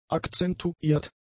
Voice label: "akzentuiert", german; adjectives